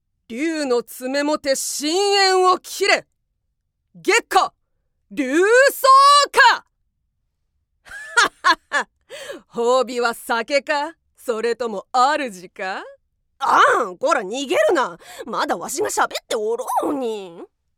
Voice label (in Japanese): 女武将・必殺技～勝利セリフ